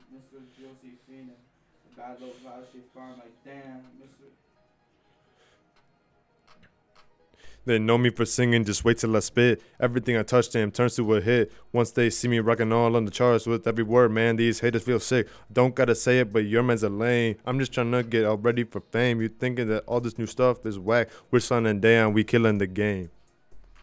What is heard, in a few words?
recordin Mic Input; flstudio; Vocals